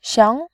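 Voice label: "xiang", Mandarin Chinese, tone 2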